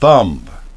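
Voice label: "thumb", English pronunciation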